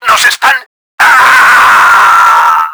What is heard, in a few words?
building dies, Spanish; Battle Engine Aquila; XBOX